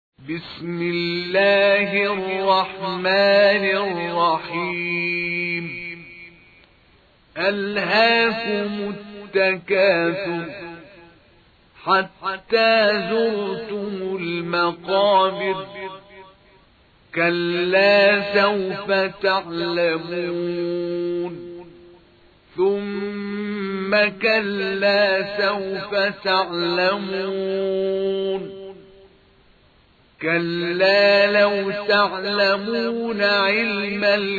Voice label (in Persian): ترتیل; عبدالباری محمد; سوره تکاثر